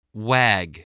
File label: "wag", spelling